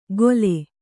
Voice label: "ಗೊಲೆ", kannada

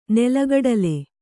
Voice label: "ನೆಲಕಡಲೆ", Kannada

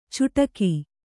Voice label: "ಚುಟಕಿ", Kannada

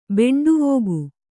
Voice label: "ಬೆಂಡುವೋಗು", Kannada

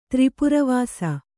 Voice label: "ತ್ರಿಪುರವಾಸ", kannada

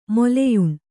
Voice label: "ಮೊಲೆಯುಣ್ಣು", Kannada

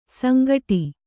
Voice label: "ಸಂಗಟಿ", Kannada

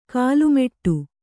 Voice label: "ಕಾಲುಮೆಟ್ಟು", kannada

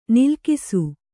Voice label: "ನಲುಗಿಸು", kannada

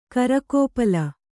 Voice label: "ಕರಕೋಪಲ", kannada

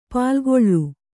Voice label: "ಪಾಲ್ಗೊಳ್", Kannada

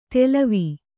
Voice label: "ತೆಲವಿ", kannada

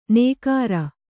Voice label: "ನೇಗಾರ", Kannada

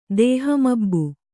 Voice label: "ದೇಹಮಬ್ಬು", Kannada

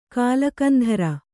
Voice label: "ಕಾಳಕಂಧರ", Kannada

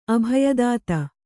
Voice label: "ಅಭಯದಾತೆ", Kannada

